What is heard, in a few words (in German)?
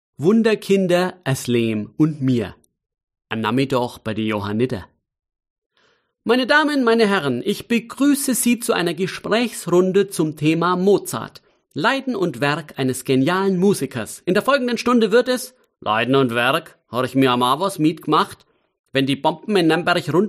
Mundart